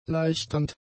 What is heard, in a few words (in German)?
"leichternd", German; adjectives